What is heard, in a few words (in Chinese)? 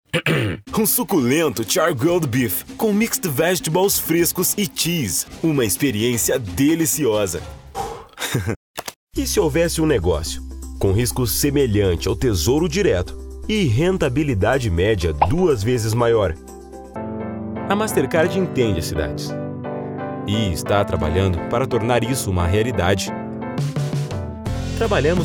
葡萄牙语男 宣传片